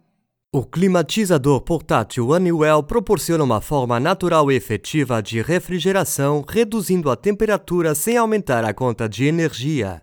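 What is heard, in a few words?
Product Video Portuguese Brazil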